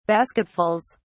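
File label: "basketfuls", British English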